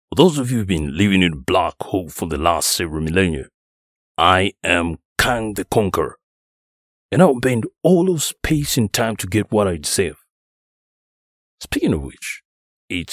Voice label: Animation